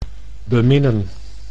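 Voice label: "beminnen", Dutch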